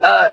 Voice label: "uh", male

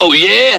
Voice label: "oh yeah", voice

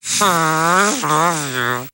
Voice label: phye wuvoo, Voice gibbrish

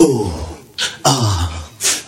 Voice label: "ooh huh ahh huh" looped

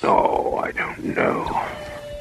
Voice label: "oh i don't know", male

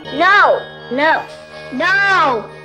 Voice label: no no no girl; Voice; expletives

